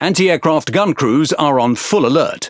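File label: Speech, British English; Consumable AAC ready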